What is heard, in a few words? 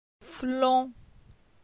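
"Flon", French; phonetique; la syllabe on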